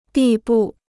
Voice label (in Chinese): "地步", Chinese